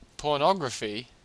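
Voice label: "pornography", Australian English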